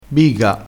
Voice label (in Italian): "biga", vocabolario dialettale